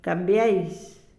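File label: "cambiais", Spanish, La Plata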